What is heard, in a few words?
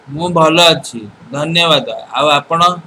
fine, odia